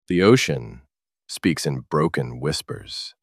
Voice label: voice sample, Natural and real, this down-to-earth voice; Chris; Shot Studio